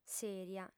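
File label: "sèria", Sardinian, female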